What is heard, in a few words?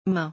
"main", French